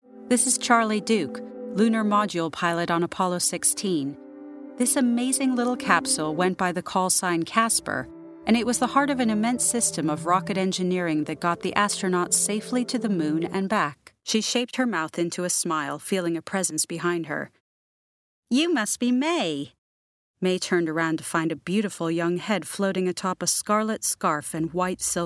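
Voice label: US English, narrative; GoLocalise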